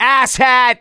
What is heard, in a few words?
reaction negative, voice; gambler